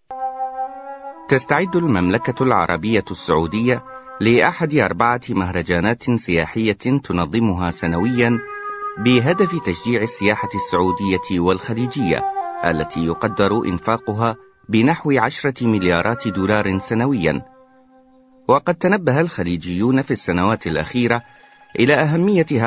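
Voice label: arabic male voice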